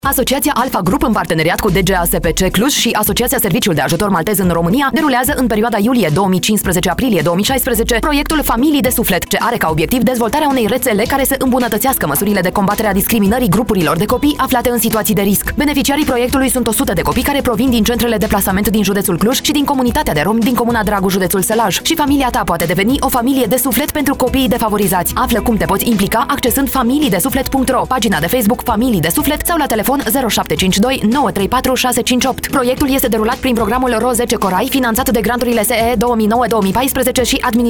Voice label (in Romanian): spot radio